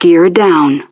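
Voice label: "Gear Down", English; Betty; DCS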